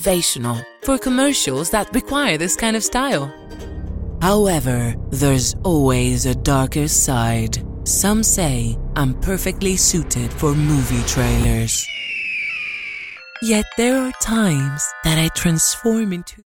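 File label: Greek-English, female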